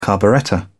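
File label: "carburettor", British English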